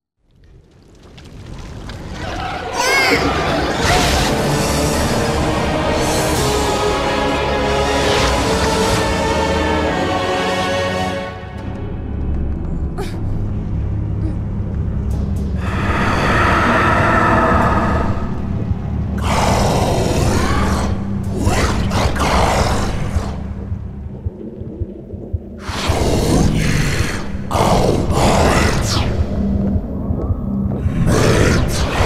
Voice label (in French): incantation; arrivee peyj; FR